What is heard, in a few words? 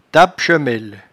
"tapechemelle", patois jura